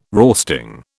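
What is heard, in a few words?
"roasting", British English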